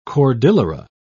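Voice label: "cordillera", British English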